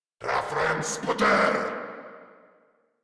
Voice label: Player Spotted, Grineer Male

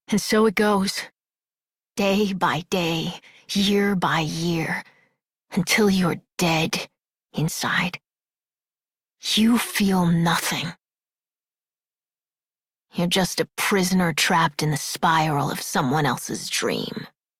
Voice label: Adult, en, Dialog; Execute; Warframe; Duviri Paradox Quest

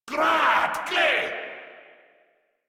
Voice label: Surprised, Grineer Male; Warframe

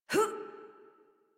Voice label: Adult Operator Jump, en; Warframe; New War

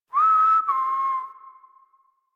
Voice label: Horse Stop Walk Adult; Warframe; Duviri

